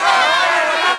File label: angry demonstrator; HEDZReloaded